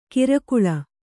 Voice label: "ಕಿರಕುಳ", Kannada

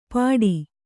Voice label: "ಪಾಡಿ", Kannada

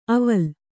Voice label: "ಅವಲ್", Kannada

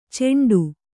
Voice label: "ಚೆಂಡು", kannada

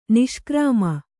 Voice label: "ನಿಷ್ಕ್ರಾಮ", Kannada

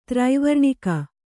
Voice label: "ತ್ರೈವರ್ಣಿಕ", Kannada

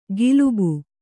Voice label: "ಗಿಲುಬು", Kannada

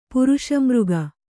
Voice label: "ಪುರುಷಾಮೃಗ", Kannada

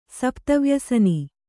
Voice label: "ಸಪ್ತವ್ಯಸನ", Kannada

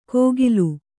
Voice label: "ಕೋಗಿಲು", Kannada

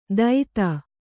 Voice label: "ದೈತ", kannada

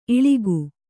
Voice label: "ಇಳಿಕು", Kannada

